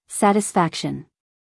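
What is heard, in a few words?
"satisfaction", American English, female; everyone-can-use-english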